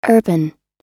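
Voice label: "urban", English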